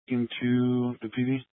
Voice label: Police Department Dispatch; Grand Junction

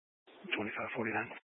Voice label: Sheriff Dispatch, scanner

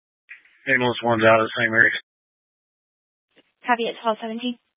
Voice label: Fire Dispatch, scanner

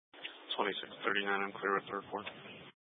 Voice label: Sheriff Dispatch; Mesa County

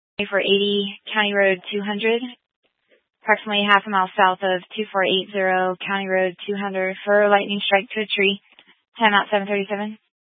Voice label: Fire Dispatch, scanner

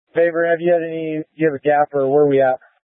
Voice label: Incident Tac 3, scanner

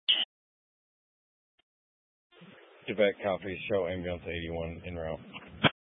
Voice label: Grand Junction Fire Department Dispatch

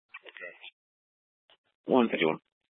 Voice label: Fire Dispatch, scanner

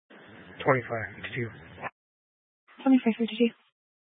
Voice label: Sheriff Dispatch, scanner; Mesa County

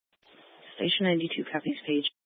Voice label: Fire Department Dispatch; Grand Junction

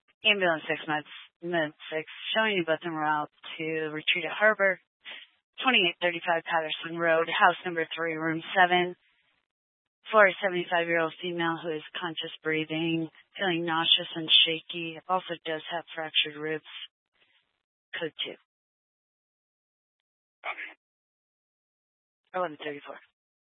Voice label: Fire Dispatch, scanner

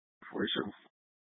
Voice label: Mesa County Sheriff Dispatch

